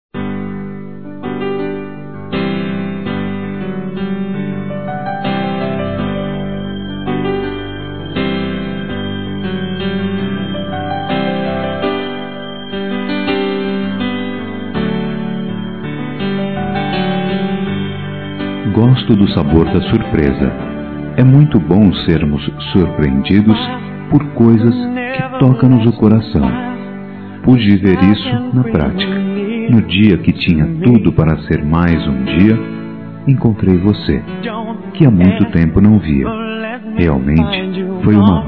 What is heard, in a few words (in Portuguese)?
Reencontro Masculino; Telemensagens; Dia Dos namorados; Telemensagens 2017